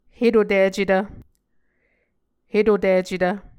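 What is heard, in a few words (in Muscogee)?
"hetuticetv", Muscogee word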